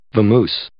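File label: "vamoose", English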